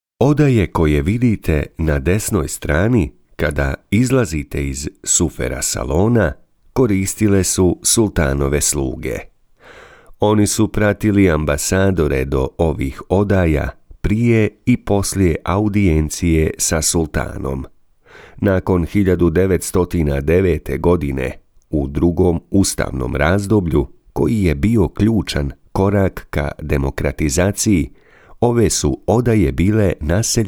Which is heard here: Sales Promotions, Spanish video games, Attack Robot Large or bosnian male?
bosnian male